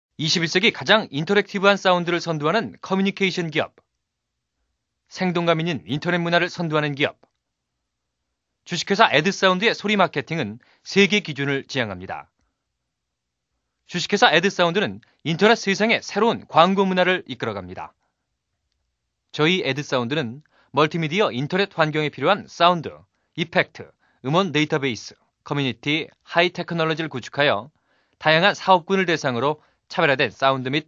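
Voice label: Korean, male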